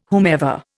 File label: "whomever", Indian